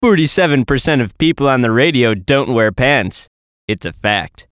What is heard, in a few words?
VOICE COMICAL; Forty Percent Pants; Radio Voice; Production Element, Imaging Element, Accent, Transition; Blastwave